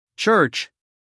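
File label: "church", American English, male; everyone-can-use-english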